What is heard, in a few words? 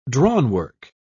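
"drawnwork", British English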